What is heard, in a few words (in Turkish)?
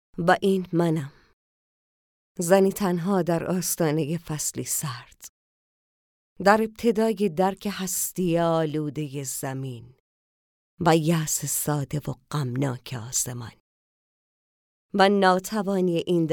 Farsca Kadin Iran; seslendirme